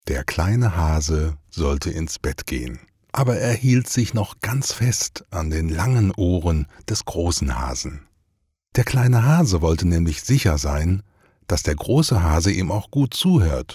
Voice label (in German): hoerbuch